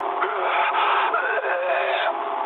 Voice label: ru damaged pilot; War Thunder